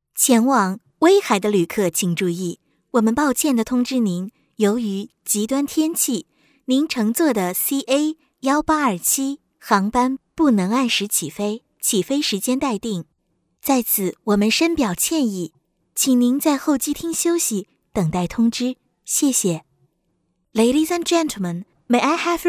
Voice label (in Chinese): 女 中英 播报 机场 带停顿